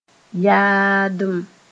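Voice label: "yaadem", Mooré; stride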